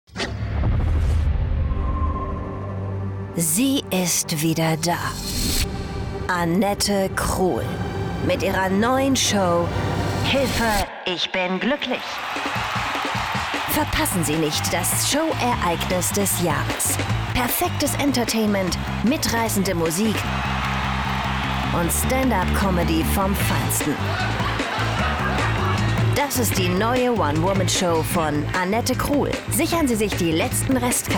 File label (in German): Werbespot; LOFT VOICES